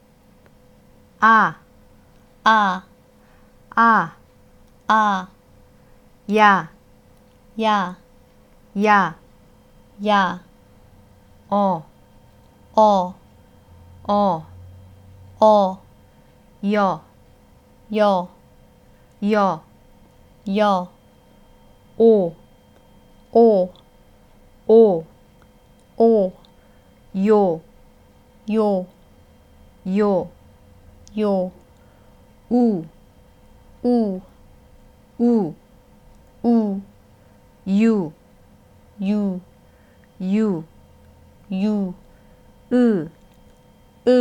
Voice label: simple Vowels